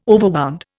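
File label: "overwound", Indian English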